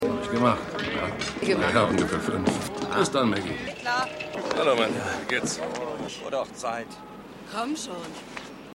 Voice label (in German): Bargast Mann Mann Frau; Maria; AusgerechnetAlaska